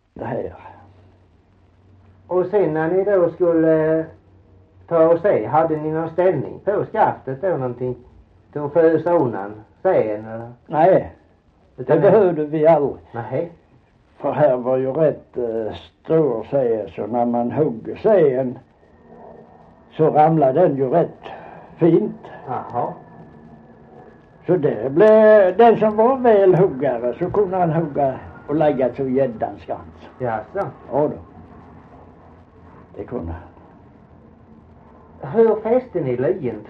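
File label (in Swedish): Holm Halland, dialekter; Folkminnen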